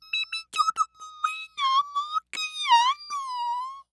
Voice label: Cartoon Human Male Weird Voice High Pitched; AdobeCC

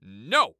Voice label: "No", Human Male

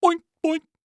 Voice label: Cartoon Voice Boink Double

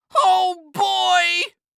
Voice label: Cartoon Voice "Ohhh Boy" Excited; AdobeCC

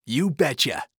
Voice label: Cartoon Voice Cool; You Betchya; AdobeCC

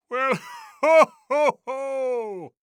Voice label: Cartoon Voice Well Ho Ho Ho Santa; AdobeCC

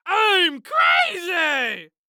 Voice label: Cartoon Voice I'm Crazy

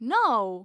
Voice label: "No", Human Female

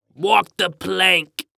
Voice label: Human Pirate Say Walk The Plank

